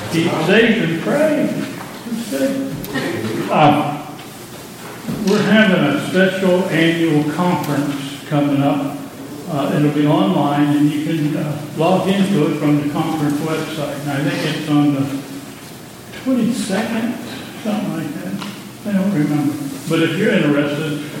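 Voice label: Welcome and Announcements, church service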